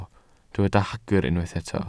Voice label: "hagr", Welsh

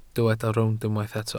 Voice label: "rownd", Welsh

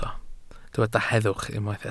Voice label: "heddwch", Welsh

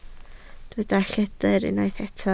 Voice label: "lledr", Welsh

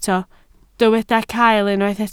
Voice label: "cael", Welsh

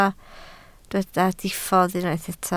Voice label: "diffodd", Welsh

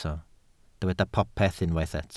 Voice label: "popeth", Welsh

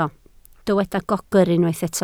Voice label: "gogr", Welsh

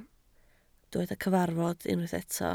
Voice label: "cyfarfod", Welsh

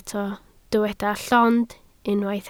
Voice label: "llond", Welsh

